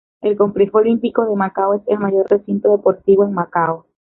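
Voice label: "recinto", Spanish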